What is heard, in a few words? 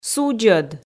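"судзӧд", Komi, female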